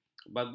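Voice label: "bagus", Malay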